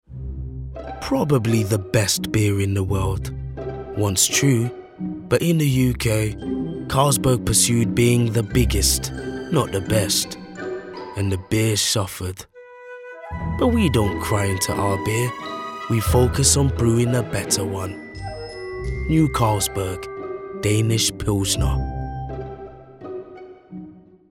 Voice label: english commercial; carlsberg